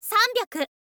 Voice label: "sanbyaku", info girl voice

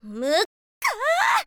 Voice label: "mukka", girl

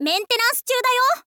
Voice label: info girl "maintenance cyuu dayo"

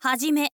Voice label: info lady; voice

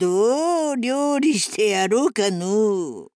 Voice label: necromancer old woman start

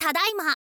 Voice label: "tadaima", info girl voice

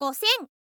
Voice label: "gosen", info girl voice

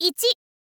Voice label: girl; ichi; voice

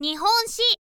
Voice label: info girl "nihonshi"

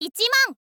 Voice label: "ichiman", info girl voice

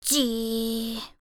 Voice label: "zii", girl

